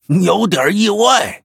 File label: Male Dryad Oops, zh